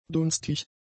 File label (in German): "dunstig", German; adjectives